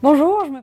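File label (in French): "bonjour" French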